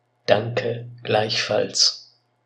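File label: pronunciation danke gleichfalls, German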